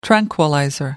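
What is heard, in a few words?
"tranquilizer", British English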